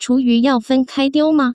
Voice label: "chuyu", Chinese, Taiwan; garbage sorting fenlei